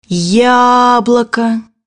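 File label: "apple", Russian; bukvar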